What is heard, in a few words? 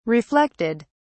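"reflected", English, uk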